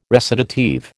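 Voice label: "recitative", American English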